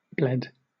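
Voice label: "bled", English, British accent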